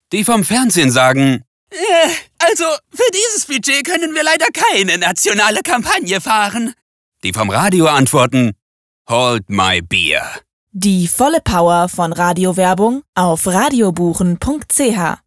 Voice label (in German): SPOT Gattungsmarketing TV Radio Straight